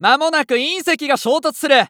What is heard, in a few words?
Announce Meteor Prep, JPN; Eternal Return